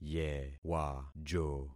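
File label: "yewajo", concatenated syllables; Affixing experiment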